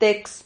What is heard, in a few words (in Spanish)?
"tex", Silabas